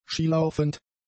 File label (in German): "skilaufend", german; adjectives